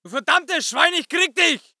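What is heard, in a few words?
voice german infantry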